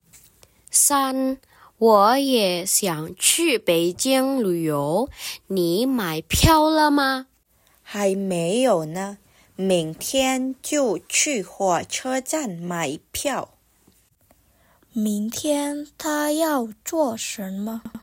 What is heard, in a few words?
Mandarin; mandarin placement test hsk2